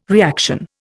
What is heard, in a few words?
"reaction", Indian